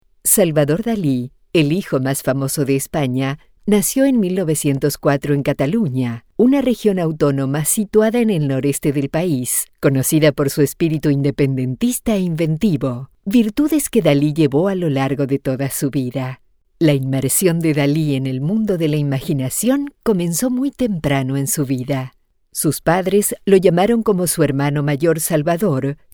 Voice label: spaans

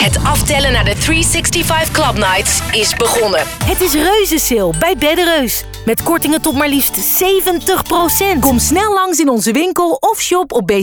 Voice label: Stemdemo selling

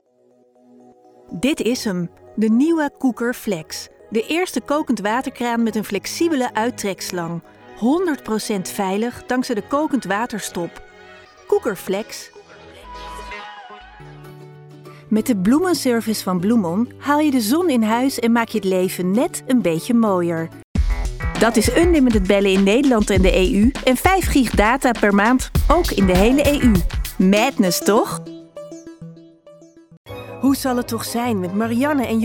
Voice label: COMMERCIALS

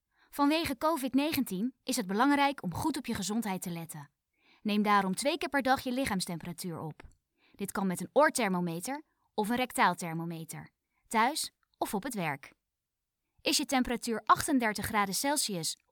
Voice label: Intructievideo covid 19

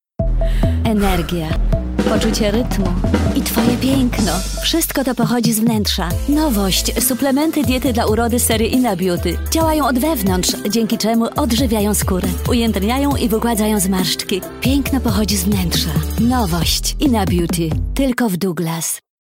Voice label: Pools vrouw

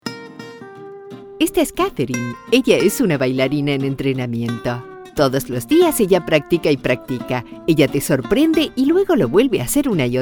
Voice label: Spaans Vrouw

